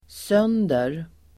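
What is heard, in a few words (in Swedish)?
"sönder", Swedish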